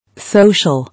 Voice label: "Social", English

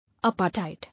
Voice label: "Apartheid", English

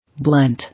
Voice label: "Blunt", English

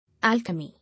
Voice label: "Alchemy", English

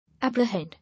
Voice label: "Apprehend", English

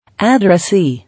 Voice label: "Addressee", English

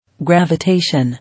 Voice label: "Gravitation", English

